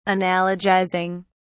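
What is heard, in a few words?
"analogizing", British English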